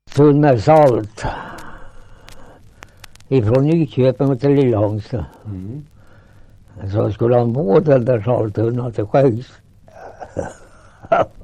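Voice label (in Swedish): Svärta Södermanland, dialekter; Folkminnen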